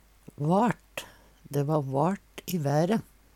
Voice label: vart, Numedalsmål; Dæ va vart i være.

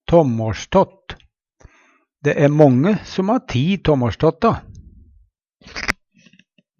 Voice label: tåmmåLstått, Numedalsmål; Substantiv hankjønn; Dæ æ månge som ha ti tåmmåLståtta.

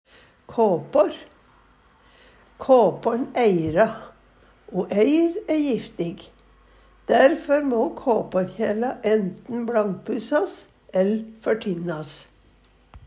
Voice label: kapar, Numedalsmål

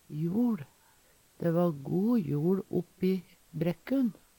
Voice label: "jol", Numedalsmål

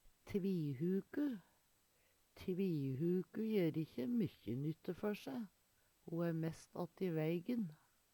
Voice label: tvihuku, Numedalsmål; Tvihuku jer ikkje mykkje nytte før se. Ho æ mest atti veigen.

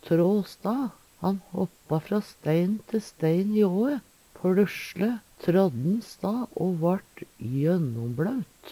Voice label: "trå sta", Numedalsmål

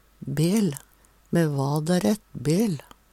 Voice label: bel, Numedalsmål; Me va dær ett bel.

